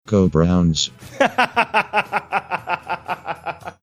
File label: saying go browns with computer voice laughs